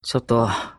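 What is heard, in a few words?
"chotto", Japanese; shinpachi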